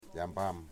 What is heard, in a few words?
"sago basket", Wamut